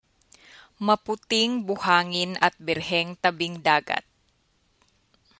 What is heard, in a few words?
tagalog